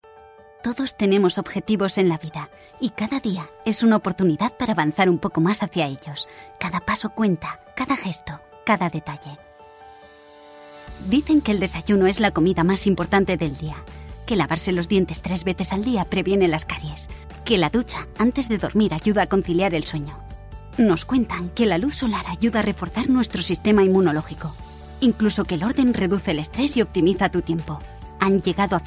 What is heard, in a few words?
FEMALE, advertising